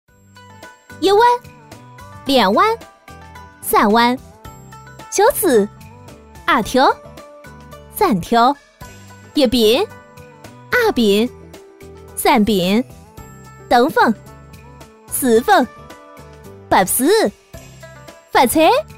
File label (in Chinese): 女, 游戏, 合肥话; 棋牌